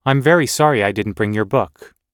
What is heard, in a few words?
everyday apologizing us